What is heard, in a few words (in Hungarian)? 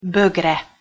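"bögre", Hungarian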